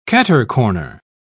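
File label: "catercorner", British English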